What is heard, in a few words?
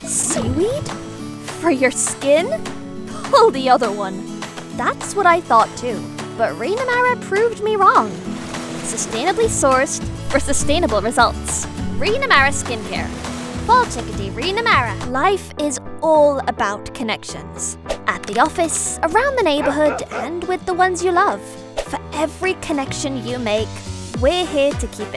Commercial UK IE